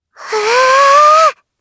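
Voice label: Casting, Japanese; voices; heroes; Lavril; kingsraid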